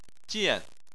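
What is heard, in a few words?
"jian", Chinese, fourth tone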